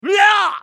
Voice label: ソルジャー男･攻撃

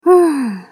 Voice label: ウィザード女 選択

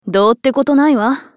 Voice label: スカウト女 リサシテーション

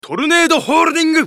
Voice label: ウォーロック男 トルネード

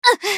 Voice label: ウィザード女･被ダメージ

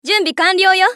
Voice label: マスケッティア女 選択, 女

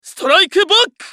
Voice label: ウィザード男･ストライクバック

